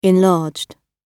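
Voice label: "enlarged", British English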